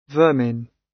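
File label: "vermin", British; Flora and fauna, agriculture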